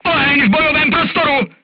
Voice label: voice message fire in tank, crew tanks czech